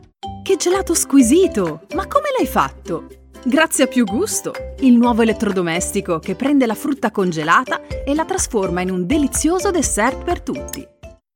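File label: energetic cheerful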